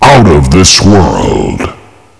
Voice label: kill, woman